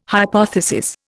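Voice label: "hypothesis", Indian English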